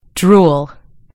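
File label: "drool", British English; gcompris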